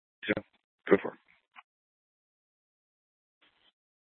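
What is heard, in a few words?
Police Department North Dispatch, scanner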